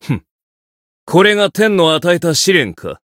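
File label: Voice encounter fear, Japanese; claude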